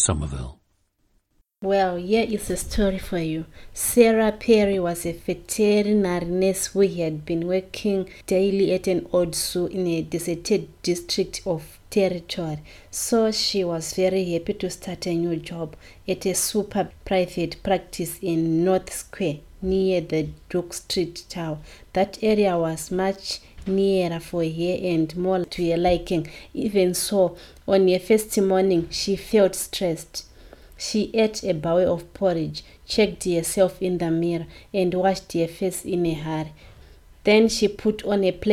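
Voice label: zimbabwe, International Dialects of English Archive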